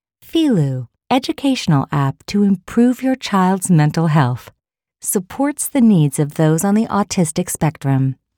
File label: Kids App Soothing Sweet